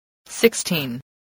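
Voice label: "sixteen", spoken word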